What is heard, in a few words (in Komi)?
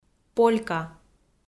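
"полька", Komi, female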